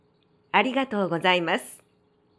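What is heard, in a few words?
"arigatou gozaimasu", Japanese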